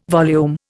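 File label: "volume", English word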